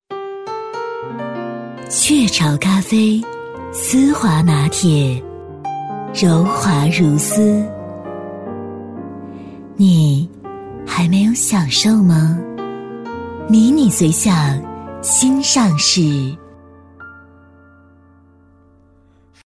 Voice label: 女, 品牌广告, 咖啡, 磁性大气; 质感磁性; 雀巢咖啡

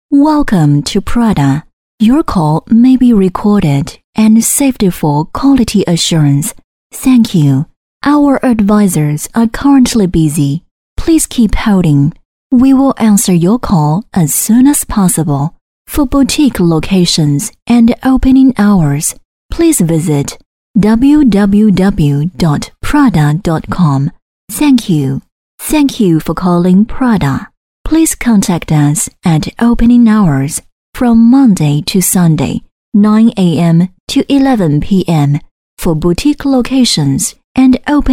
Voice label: 女, 英文, 电话彩铃, 温柔知性; prada

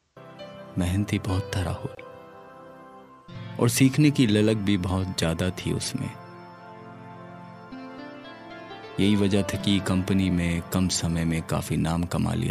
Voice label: 印地语男声

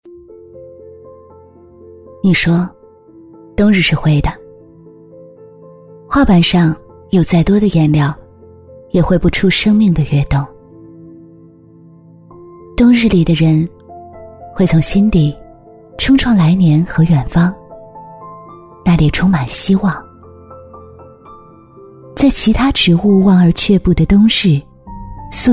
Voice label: 女 产品介绍 走心抒情; 女21-大气知性 质感磁性; 缙梅化妆产品

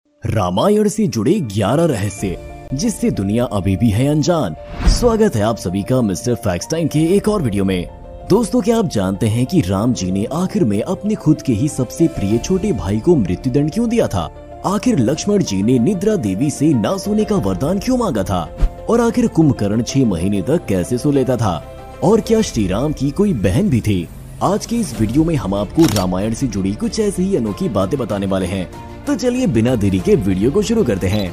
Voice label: 印地语男声

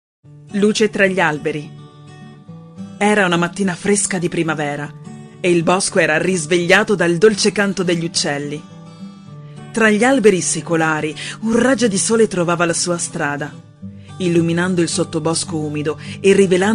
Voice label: 意大利女声

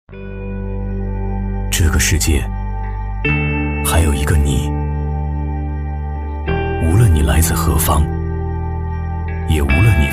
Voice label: 男 党建走心 低沉走心; 大气沉稳; 大气浑厚

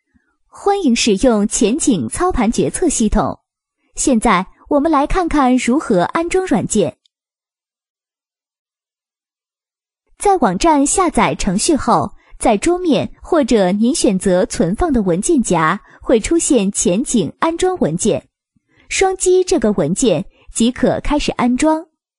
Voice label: 女, 多媒体课件, 安装视频解说; 女21-大气知性 温柔知性

